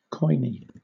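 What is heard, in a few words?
"koine", British English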